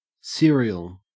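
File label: "serial", Australian English; pronunciations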